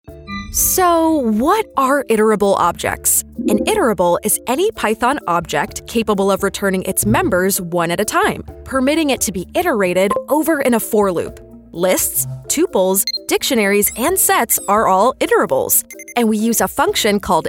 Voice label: Voiceovers UK showreel